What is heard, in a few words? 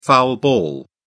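"foul ball", English, British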